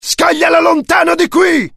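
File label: fear grenade, IT; mohaa; dialogue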